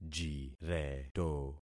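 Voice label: "jireto", concatenated syllables; Affixing experiment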